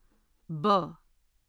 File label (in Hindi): "ब" ba; hindi script